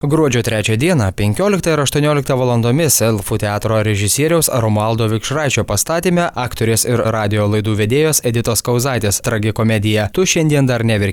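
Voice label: Lithuanian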